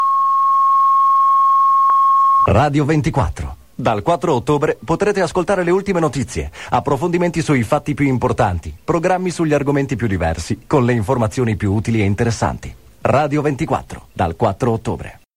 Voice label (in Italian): promo